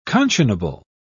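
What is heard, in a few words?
"conscionable", British English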